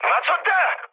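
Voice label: Korean aircraft destroyed mood medium; speech; War Thunder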